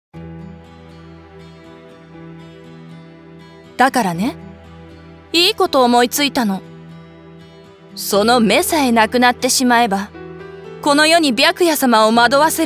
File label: anime yandere, voice sample